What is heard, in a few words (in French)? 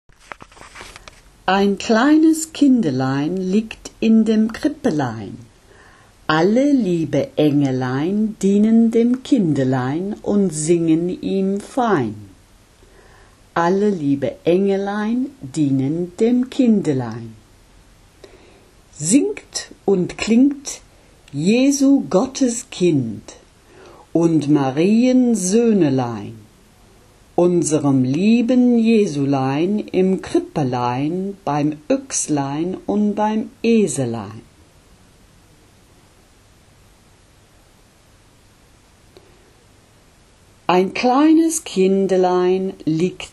psallite paroles en allemand prononciation